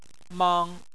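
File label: "mang", Chinese, first tone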